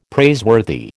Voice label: "praiseworthy", American